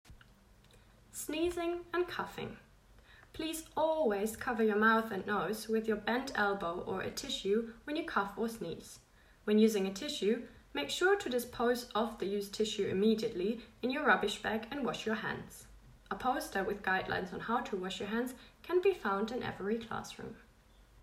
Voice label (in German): Englisch